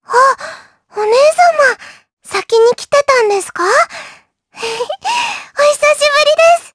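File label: vox dialogue, voices, Japanese; Lilia; kings raid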